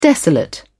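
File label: "desolate", British English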